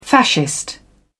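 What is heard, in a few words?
"fascist", British English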